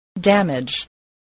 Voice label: "damage", spelling